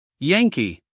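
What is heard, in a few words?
"Yankee", US English, male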